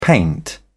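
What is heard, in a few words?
"paint", British English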